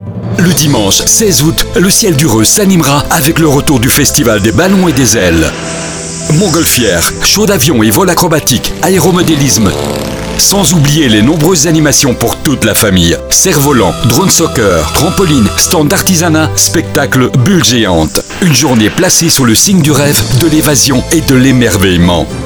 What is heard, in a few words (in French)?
Spot radio; Bel RTL